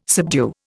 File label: "subdue", Indian